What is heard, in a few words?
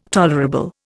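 "tolerance", Indian; Dictionary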